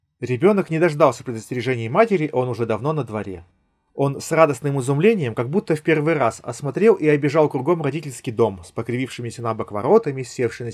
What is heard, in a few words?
Recorder; sony wh-1000xm6